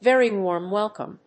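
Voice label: "very warm welcome", English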